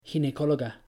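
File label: "ginecóloga", Spanish; pronouncing c g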